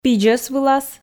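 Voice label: "пидзӧс вылас", Komi, female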